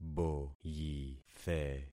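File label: "boyithe", concatenated syllables; Affixing experiment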